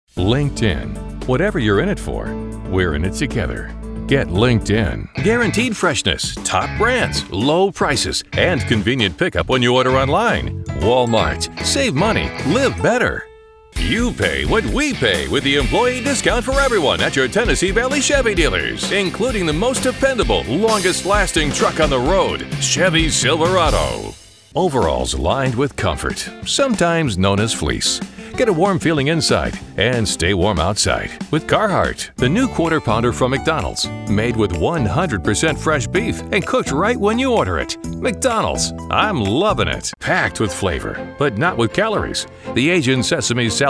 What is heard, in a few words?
Commercial